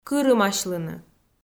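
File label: "кырымасьлыны", Komi, female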